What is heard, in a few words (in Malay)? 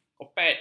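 "kopet", Malay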